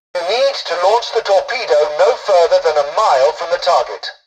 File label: tutorial dialogue, english; War Thunder